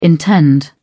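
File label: "intend", British English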